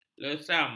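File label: "lesam", Malay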